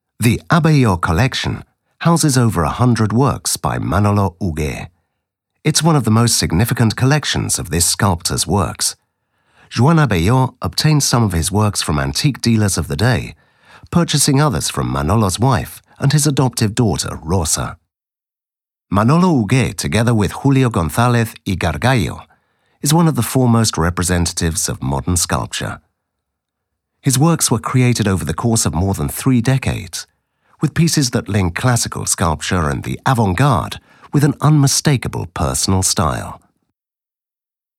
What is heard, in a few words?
audioguia english